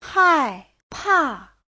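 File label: "hàipà", Chinese; 害怕; (động từ) sợ; Giáo trình chuẩn HSK3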